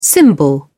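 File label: "cymbal", British English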